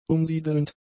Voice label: "umsiedelnd", German; adjectives

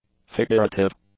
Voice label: "figurative", english; adjectives

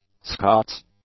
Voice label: "Scots", English; adjectives

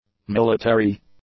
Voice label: "military", English; nouns

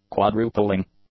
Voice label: "quadrupling", English; adjectives

